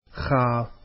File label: arabic alphabet khaa